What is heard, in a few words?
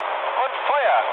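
German attack position mood low, speech; War Thunder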